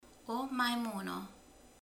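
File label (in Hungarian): "majom", Hungarian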